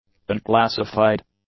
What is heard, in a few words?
"unclassified", English; adjectives